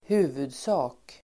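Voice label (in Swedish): "huvudsak", Swedish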